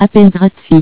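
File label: free calls, French; asterisk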